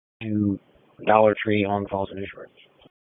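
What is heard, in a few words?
Police Northeast District Disp, radio; Raleigh Police Northeast District Disp; wake p25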